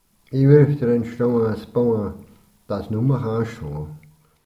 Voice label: Haag Spruch, Sennwalder Dialekte

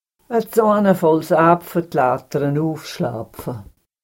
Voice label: sennwald spruch soapfa, sennwalder dialekte

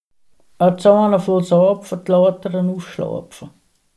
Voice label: Salez Spruch lauter, Sennwalder Dialekte

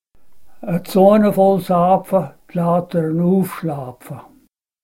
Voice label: sennwald spruch, sennwalder dialekte

